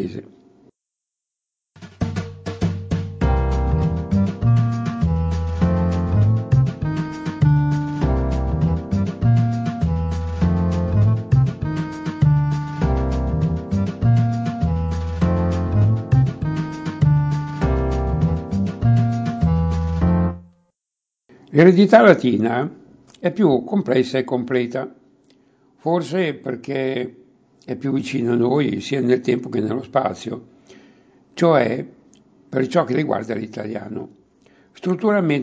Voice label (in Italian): parole dialettali